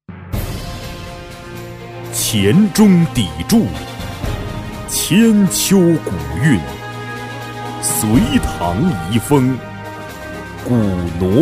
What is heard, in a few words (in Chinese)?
男 广告 城市 厚重; 德江